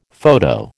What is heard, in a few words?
"photo", American